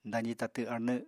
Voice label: "dazi tatw arnw", apatani

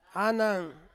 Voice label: "anang", Ansus; sago porridge

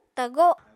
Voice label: "tago7", Apatani

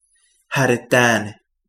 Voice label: "mens house", Kapingamarangi

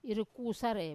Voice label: "irukusare", I press, Wamesa

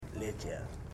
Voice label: "thirteen", Ipili

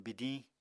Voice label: "bidiñ", Apatani

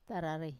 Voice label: "tararai", cheek, Wamesa

